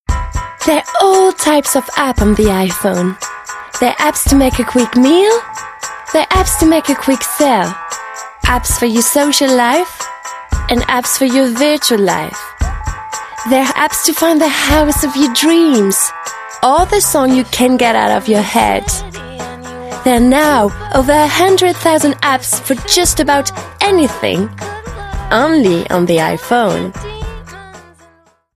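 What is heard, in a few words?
French English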